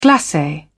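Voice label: "glace", English, British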